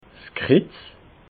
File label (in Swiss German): "kriz", alsace